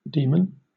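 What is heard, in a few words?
"demon", British English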